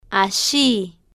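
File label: "alli", slow, Spanish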